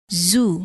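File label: "zoo", English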